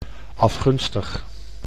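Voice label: "afgunstig", Dutch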